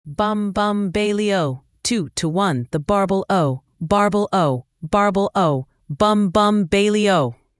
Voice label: fem us; Bum Bum Bailey O; ttsmp3

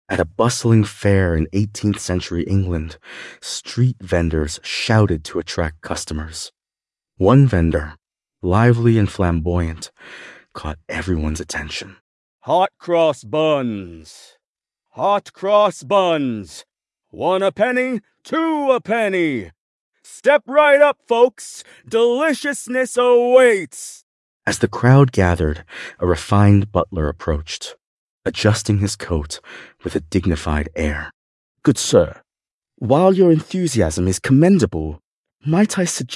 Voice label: male brit; Hot Cross Buns

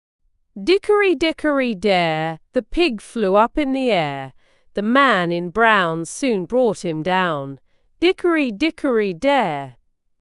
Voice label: female brit; Dickery Dickery Dare

